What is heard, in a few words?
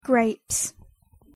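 "grapes", English; pronunciation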